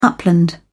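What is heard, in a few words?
"upland", British English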